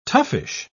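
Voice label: "toughish", English, British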